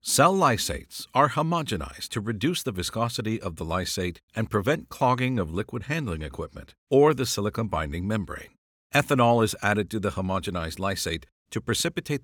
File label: Medical Professional Explainer